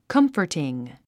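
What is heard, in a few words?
"comforting", English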